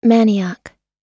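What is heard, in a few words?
"nanny", us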